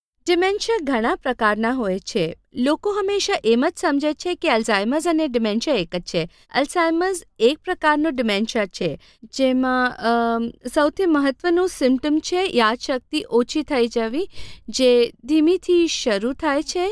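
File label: Gujarati, female, narration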